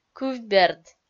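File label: "кывберд", Komi, female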